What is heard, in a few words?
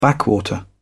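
"backwater", British English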